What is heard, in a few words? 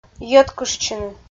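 "йӧткыштчыны" Komi, female; kpv audio dict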